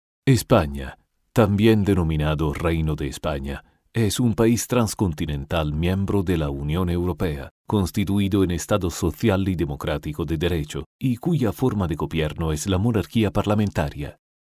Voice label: Spanish Italian accented